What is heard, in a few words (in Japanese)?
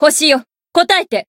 Voice chant jp; herena